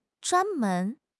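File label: "zhuan1 men2", Mandarin Chinese; HSK4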